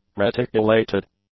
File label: "reticulated", English; adjectives